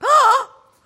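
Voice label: "huh", vocal sample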